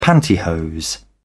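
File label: "pantyhose", British English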